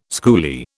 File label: "schoolie", British